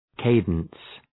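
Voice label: "cadence", English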